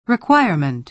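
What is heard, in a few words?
"requirement", American English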